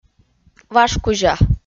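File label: "важ кузя", Komi-Zyrian, female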